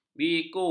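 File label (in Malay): "biku", Malay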